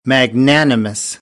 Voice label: "magnanimous", American English; ə - ɪ